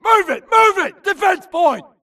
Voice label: grunt flee Player Titan Call; imc; Titanfall 2